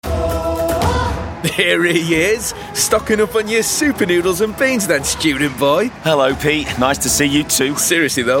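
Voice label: conversational college ad, Natural Northen Male Voice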